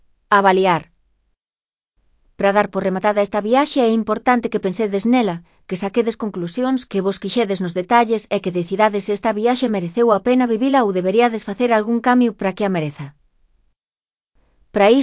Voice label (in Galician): voz sintética; Avaliar